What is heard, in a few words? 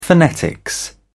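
"phonetics", British English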